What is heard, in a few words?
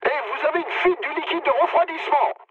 damaged water mood med, speech fr; War Thunder